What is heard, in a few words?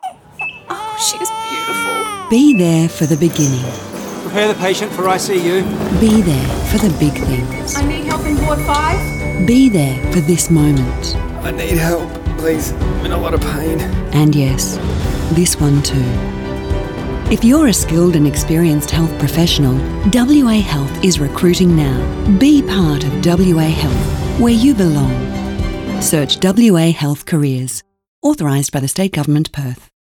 Radio